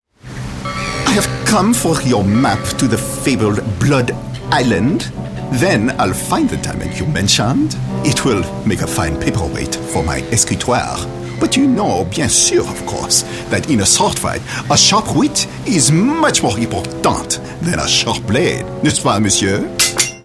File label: animation; rene du rottingham